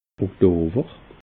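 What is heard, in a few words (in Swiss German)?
"oktower", Alsatian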